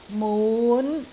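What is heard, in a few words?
"mun5", Cantonese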